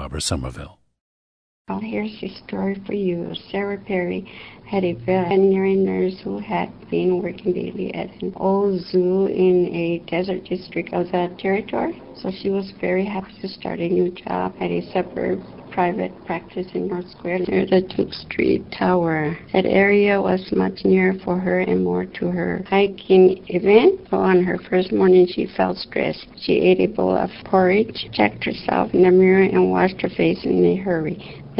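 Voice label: new mexico, International Dialects of English Archive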